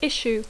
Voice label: "issue", English